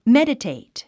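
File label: "meditate", English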